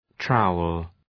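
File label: "trowel", English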